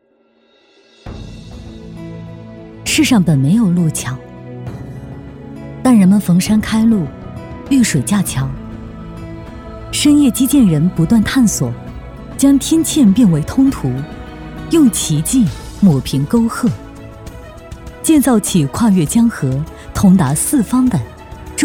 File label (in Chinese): 女 形象片; 走心企业形象片样音; 深业基建